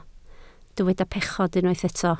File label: "pechod", Welsh